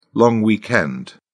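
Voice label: "long weekend", British English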